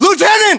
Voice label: US rank lieutenant, battlechatter, english

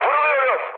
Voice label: aircraft damaged mood high, speech; War Thunder

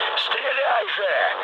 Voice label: attack position, mood med, speech ru; War Thunder

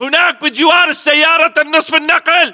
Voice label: landmark near semi, battle chatter, english

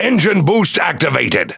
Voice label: Pirate Speech Consumable Forsage Start; World Of Warships

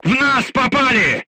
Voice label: voice message player tank hit, crew tanks russian

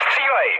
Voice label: attack position, speech cze, mood low; War Thunder

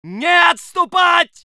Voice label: voice sov infantry

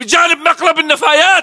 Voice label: landmark near dumpster, battle chatter, english

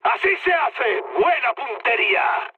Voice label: bomb success, mood high, speech; War Thunder

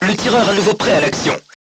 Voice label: voice message gunlayer healed, crew tanks french

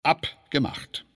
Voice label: Neutral Yes Layer; Civ5; Bismark

